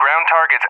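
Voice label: "ground targets", English, USA; DCS; Allied Flight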